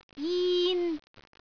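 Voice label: "jin1", Cantonese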